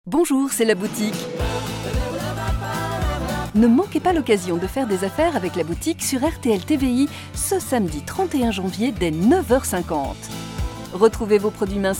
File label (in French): TELEPHONIE